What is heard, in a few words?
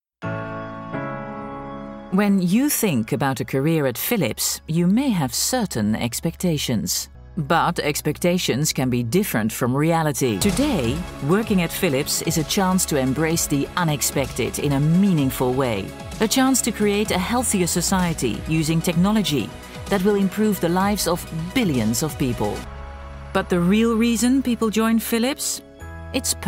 female dutch english with dutch accent commercial; philips